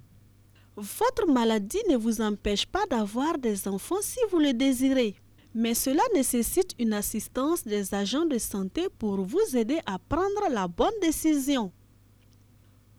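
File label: "le désir d'un enfant", French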